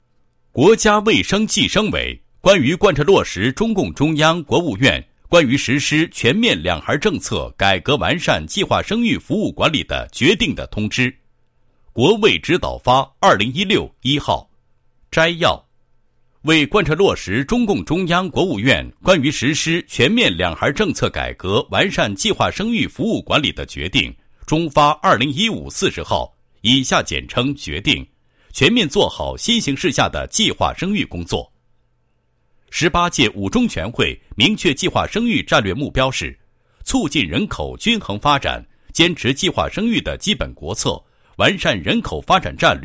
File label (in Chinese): 男 通知 计划生育服务管理决定通知